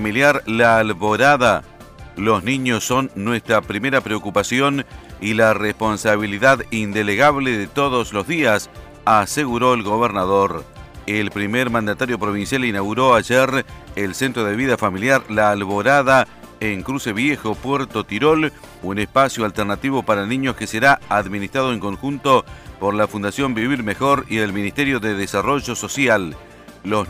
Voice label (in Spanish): bloque informativo